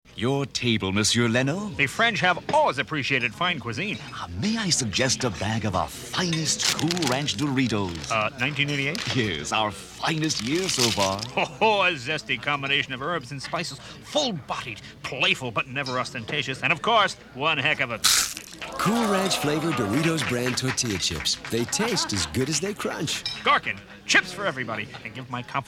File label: Radio Spot; Jay Leno; Dorritos